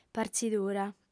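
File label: "partzidura", Sardinian, female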